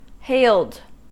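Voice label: "hailed" US English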